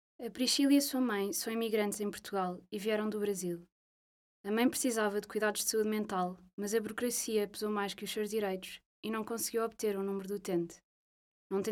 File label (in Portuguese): LOCUCAO